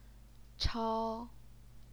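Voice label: "chao", first tone, Chinese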